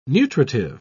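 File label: "nutritive", English, British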